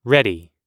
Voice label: "ready", oxford

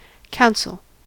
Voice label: "counsel", us

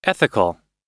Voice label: "ethical", oxford

